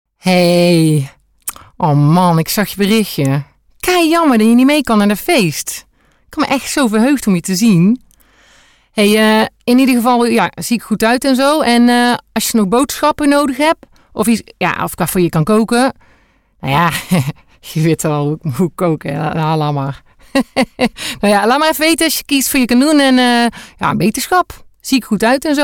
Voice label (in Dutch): Voicemail Brabants